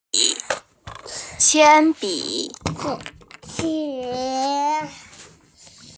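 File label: "qian1bi3", Mandarin Chinese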